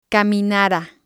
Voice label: "caminara", spanish

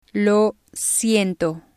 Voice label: "lo siento", spanish

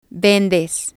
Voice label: "vendes", spanish

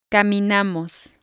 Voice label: "caminamos", spanish

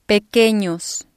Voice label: "pequenos", spanish

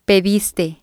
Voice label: "pediste", spanish

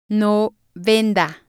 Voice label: "no venda", spanish

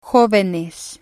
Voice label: "jovenes", spanish

